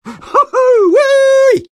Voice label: taunt cong fun; engy; tf; taunts